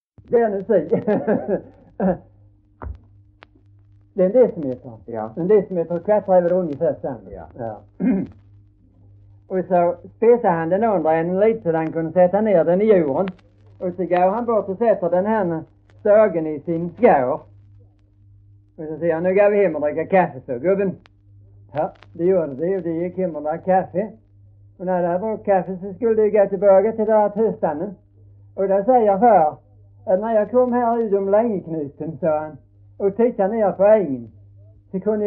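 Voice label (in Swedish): Hörja Skåne, dialekter; Folkminnen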